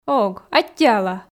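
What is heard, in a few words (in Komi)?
ог аттьӧала, Komi, female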